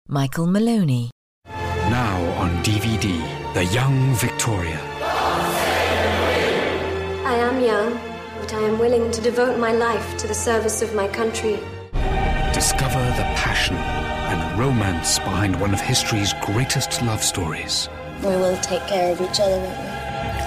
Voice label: film trailer

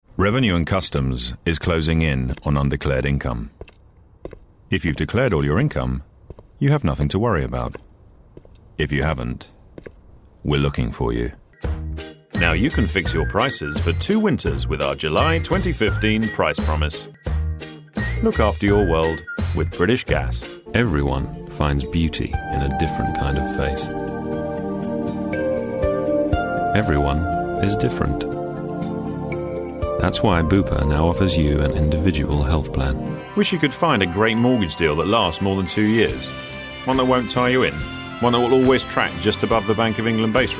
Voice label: commercial

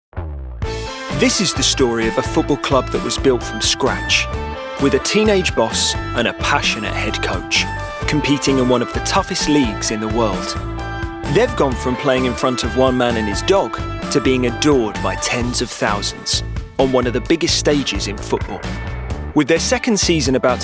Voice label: Documentary

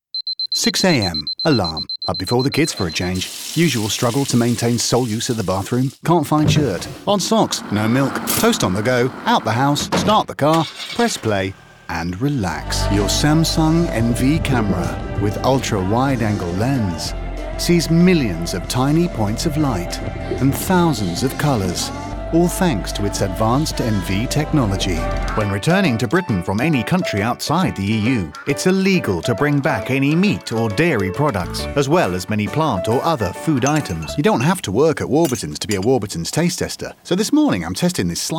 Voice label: commercial montage

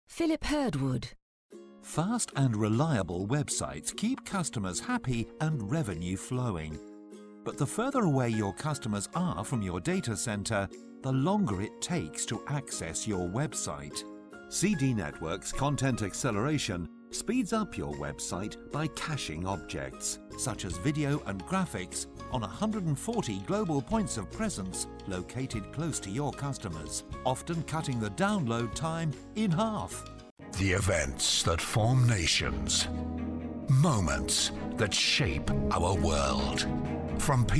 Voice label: promo reel

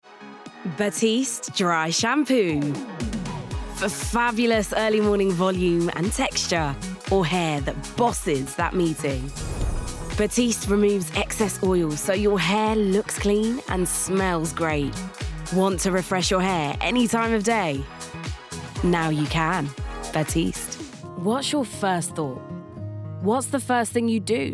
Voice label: Commercial Montage

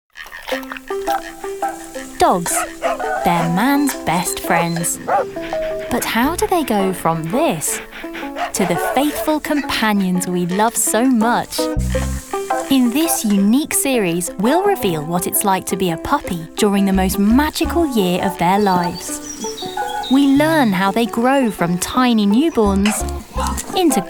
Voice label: narrative

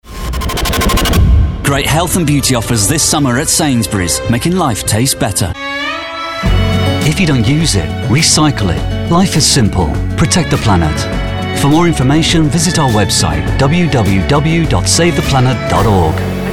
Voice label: commercial

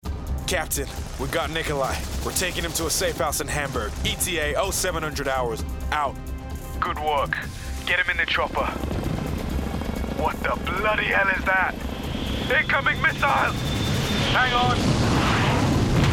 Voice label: us accent video game voice

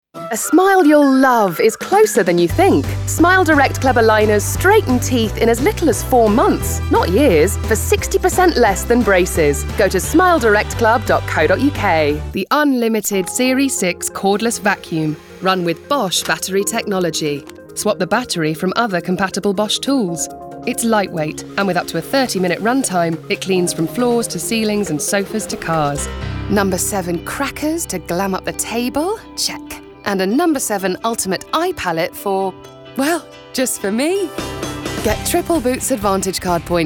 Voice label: commercial

